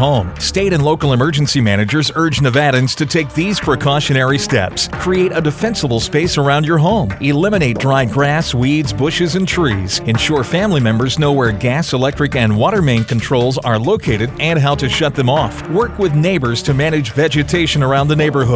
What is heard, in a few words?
Wildland Fire English Radio; Homeland Security